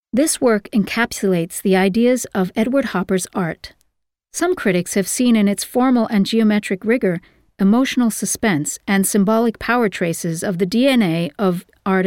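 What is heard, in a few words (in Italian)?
inglese usa, Demo Voci Spot Pubblicitari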